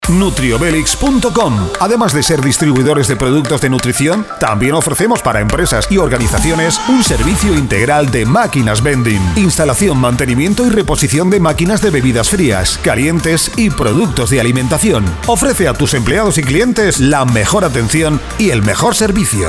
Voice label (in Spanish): HOMBRE